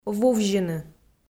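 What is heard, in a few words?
"вувзьыны", Komi, female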